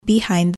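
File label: "behind", English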